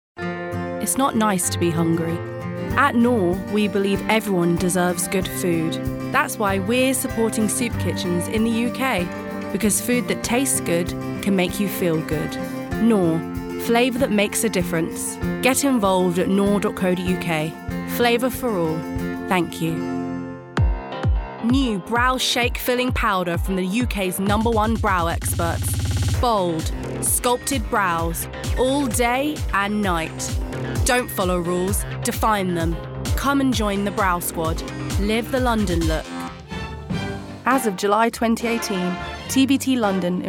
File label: commercial, english female voices british accent